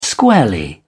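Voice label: "squarely", British English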